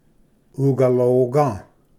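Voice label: "ugaloga", Cherokee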